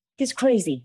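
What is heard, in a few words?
Voice Acting es, female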